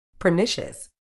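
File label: "pernicious", medical English, British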